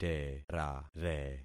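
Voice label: "terare", concatenated syllables; Affixing experiment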